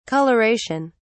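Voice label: "colouration", British English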